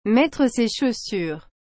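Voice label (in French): "mettre ses chaussures", French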